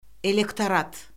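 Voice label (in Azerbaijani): "elektorat", Azerbaijani; Orfoqrafiya Lüğəti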